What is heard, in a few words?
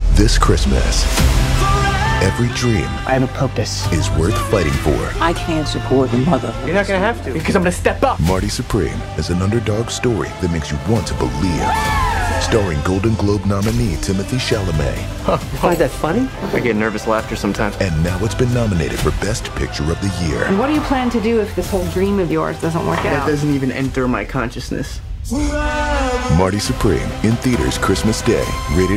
Trailer